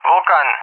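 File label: "Vulcan", English speech; Allied Flight; AirDefence; DCS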